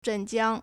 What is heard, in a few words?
"zhen4jiang1", Chinese